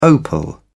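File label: "opal", British English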